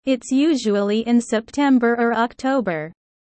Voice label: low; four seasons